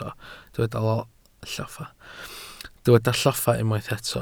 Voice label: "lloffa", Welsh

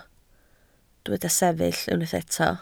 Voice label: "sefyll", Welsh

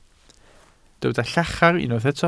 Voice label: "llachar", Welsh

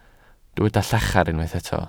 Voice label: "llachar", Welsh

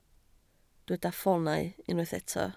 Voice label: "ffoonau", Welsh

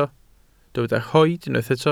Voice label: "rhoid", welsh

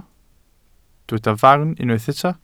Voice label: "farn", welsh

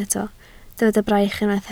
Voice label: "braich", Welsh

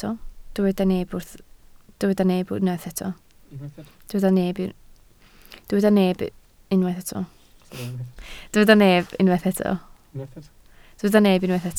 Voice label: "neb", Welsh

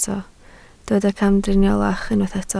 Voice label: "camdriniolach", Welsh

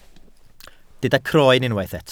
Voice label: "croen", welsh

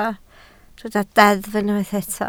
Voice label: "deddf", Welsh

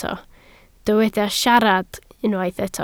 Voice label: "siarad", Welsh

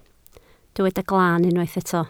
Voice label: "glan", Welsh

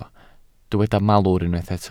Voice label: "malwr", Welsh

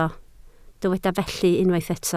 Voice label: "felly", Welsh

